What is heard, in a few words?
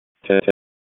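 EMS DISP, radio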